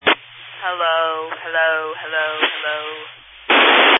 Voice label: Family Radio Service (FRS)